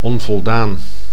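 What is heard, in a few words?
"onvoldaan", Dutch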